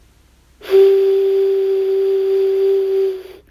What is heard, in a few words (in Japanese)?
高音